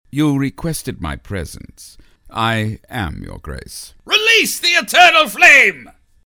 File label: Assistant, Ingenuine & Treacherous; animation